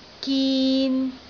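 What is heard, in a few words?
"gin3", Cantonese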